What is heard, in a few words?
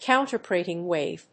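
"counterpropagating wave", English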